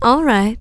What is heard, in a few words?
"alright", female; kingpin; neutral